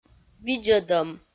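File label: "видзӧдӧм", Komi, female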